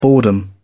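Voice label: "boredom", British English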